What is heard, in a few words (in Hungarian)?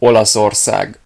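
"italy", Hungarian; gcompris; geography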